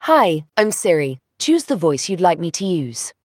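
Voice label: British English; iOS Sounds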